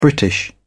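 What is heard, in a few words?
"british", British English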